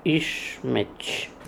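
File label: "Ischmetch", pronunciations; Fourth Way; Beelzebub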